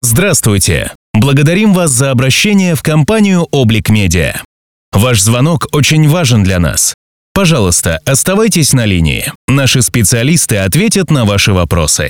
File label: M IVR